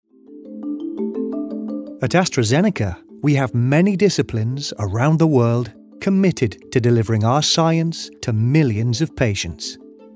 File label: Corporate Explainers